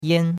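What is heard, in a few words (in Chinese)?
"yan1", Chinese; Cours-de-Chinois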